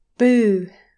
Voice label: "boo!", english pronunciation